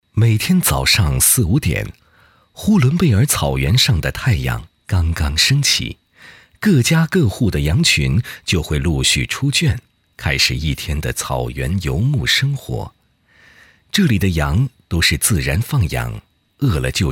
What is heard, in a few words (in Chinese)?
男 纪录片; 山城火锅食材之旅